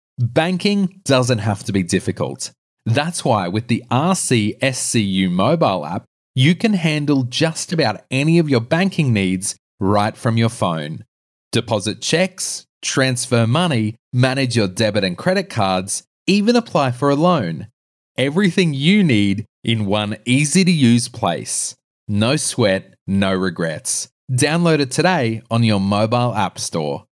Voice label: Professional